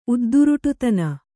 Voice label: "ಉದ್ದುರುಟುತನ", Kannada